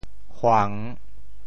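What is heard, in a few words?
"huang3", Teochew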